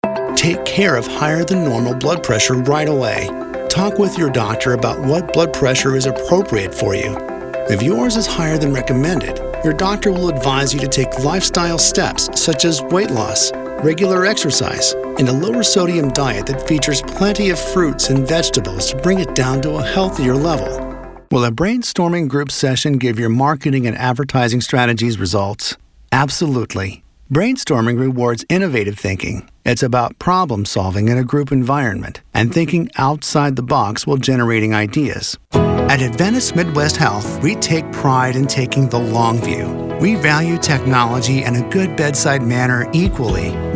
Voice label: Narration